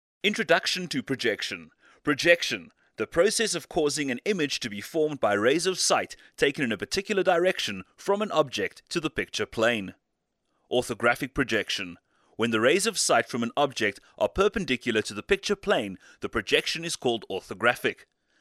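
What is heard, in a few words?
Afrikaans, male, voice over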